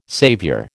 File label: "saviour", American